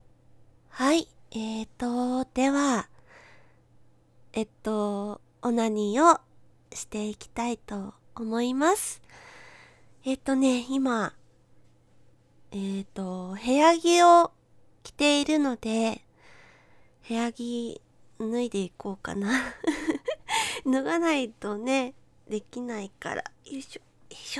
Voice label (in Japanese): オナニー実演 カウント